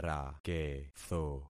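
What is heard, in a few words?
"raketho", concatenated syllables; Affixing experiment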